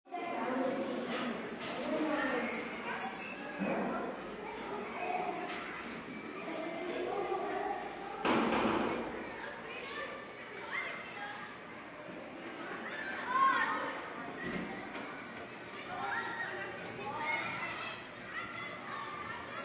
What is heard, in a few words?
Ambience School Hall, School Hall, 5-10 children, sounds of more children in distance; kids chatting, talking, yelling, in foreground and background; Talking Sound Effects